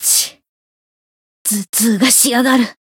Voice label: win barely, jp; elga